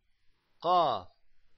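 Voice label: "qaf", Arabic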